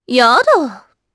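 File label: voice, Japanese; Epis; Deny; kingsraid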